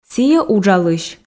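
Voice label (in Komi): сійӧ уджалысь, Komi, female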